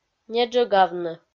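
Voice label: "неджӧгавны", Komi-Zyrian, female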